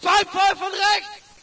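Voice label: German taking fire right; video games; player; source engine; dod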